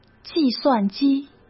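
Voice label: "计算机", Mandarin Chinese; chinese-flashcards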